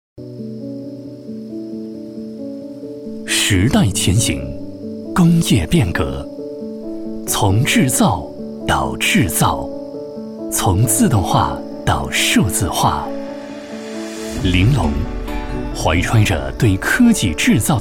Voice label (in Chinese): 男 专题